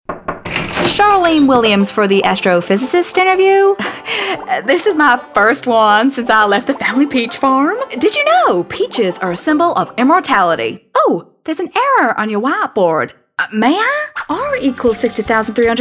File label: Animation; CHARLENE